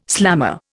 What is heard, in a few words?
"slammer", Indian